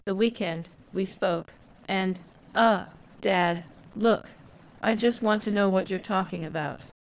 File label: speaker turns Translatotron 2 without ConcatAug prediction English